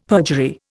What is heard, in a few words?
"perjury", Indian; Dictionary